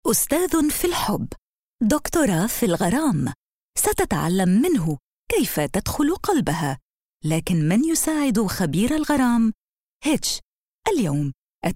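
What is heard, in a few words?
Arabic, TV announcement; GoLocalise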